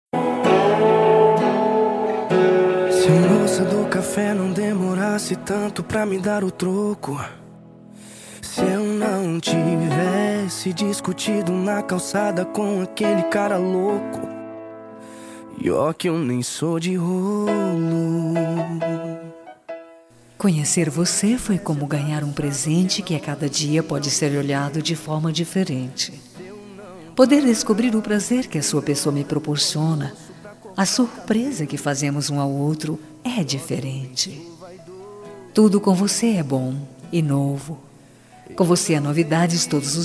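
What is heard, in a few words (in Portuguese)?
birthday DE NAMORO female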